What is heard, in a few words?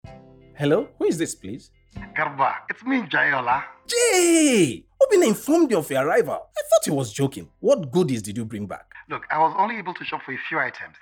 English